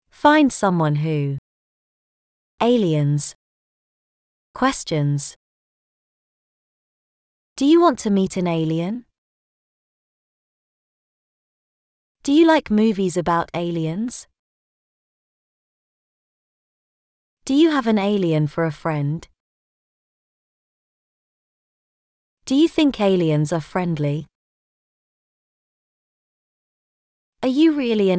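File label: British; find someone who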